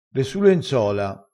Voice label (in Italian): "su", crespinese